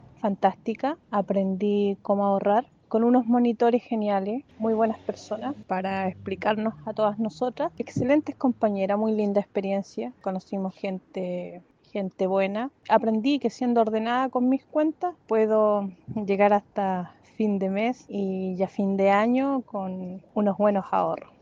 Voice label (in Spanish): cuña; mariquina fosis; familias mariquina aprendieron manejar finanzas